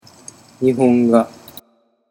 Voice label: "nihonga", Japanese

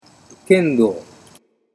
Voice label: "kendo", Japanese

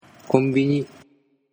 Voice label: "konbini", Japanese

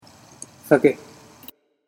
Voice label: "sake", Japanese

